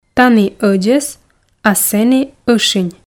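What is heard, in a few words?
"тані ӧдзӧс а сэні ӧшинь", Komi, female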